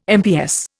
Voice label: "MPS", Indian